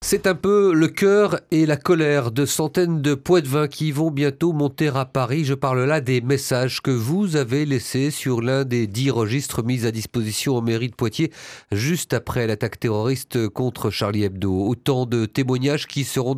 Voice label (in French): journal radio; 7h; radio bleue